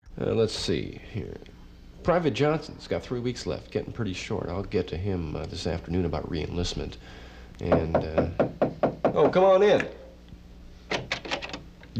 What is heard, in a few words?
Radio Spot, radio voice over